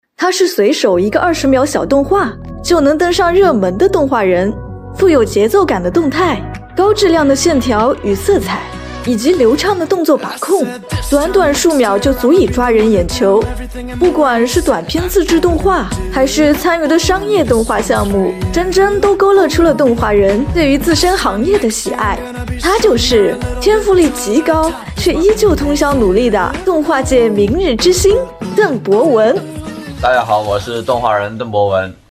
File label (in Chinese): 商业广告 风格